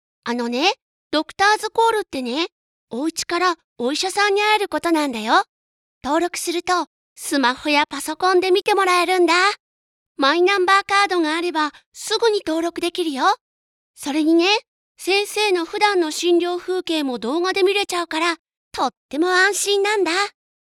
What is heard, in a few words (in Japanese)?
キャラクターナレーション